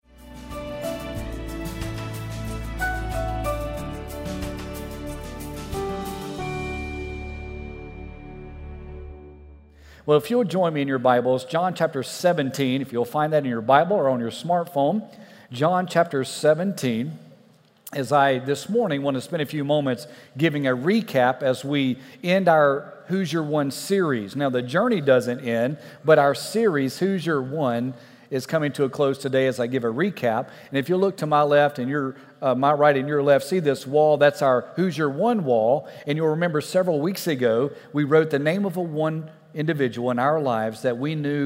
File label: Sermon English